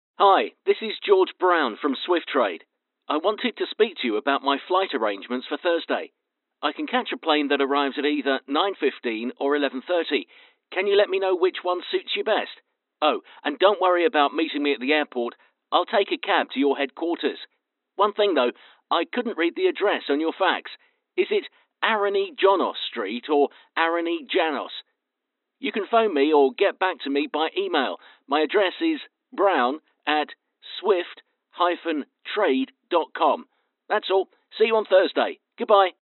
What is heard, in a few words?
Voicemail